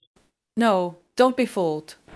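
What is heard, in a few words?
Voice Acting Spanish Female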